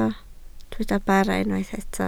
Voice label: "bara", Welsh

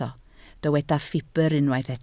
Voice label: "ffibr", Welsh

